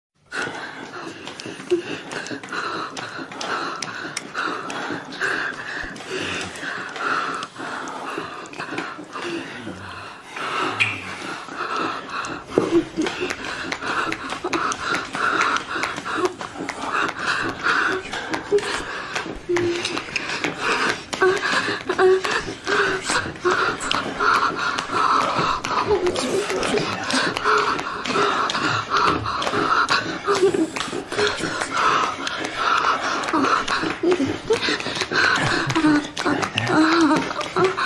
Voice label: asmr